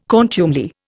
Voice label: "contumely", Indian